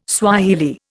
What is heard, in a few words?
"Swahili", Indian